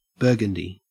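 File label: "burgundy", Australian English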